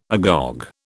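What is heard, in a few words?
"agog", British English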